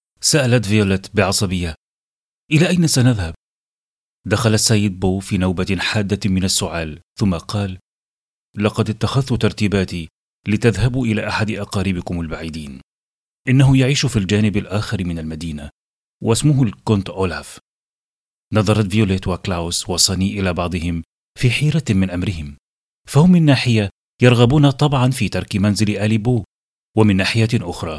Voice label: audio books novels

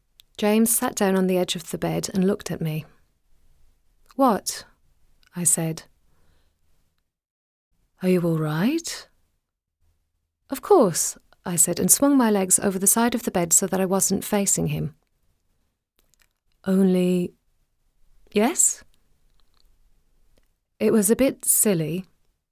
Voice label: Audiobooks

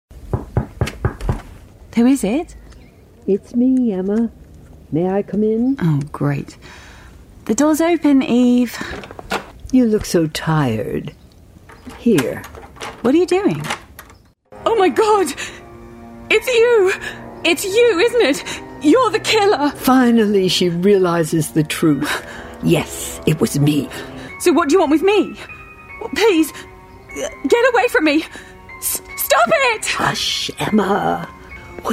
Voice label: Radio Drama

